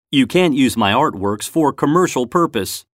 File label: commercial